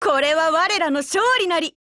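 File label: WIN, Japanese; QBZ191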